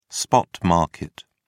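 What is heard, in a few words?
"spot market", British English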